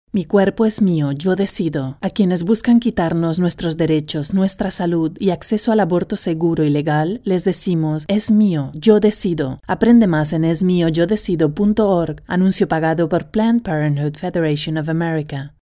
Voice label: ES LATAM COM EMPOWERED STRONG CONVINCING